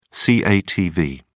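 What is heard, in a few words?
"catv", British English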